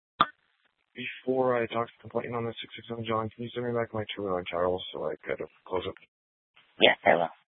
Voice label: Sheriff, scanner; Maricopa County (P25); Thompson Peak; Shrf Mnt (Parks)